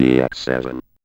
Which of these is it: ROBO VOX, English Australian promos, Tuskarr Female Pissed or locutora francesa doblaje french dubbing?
ROBO VOX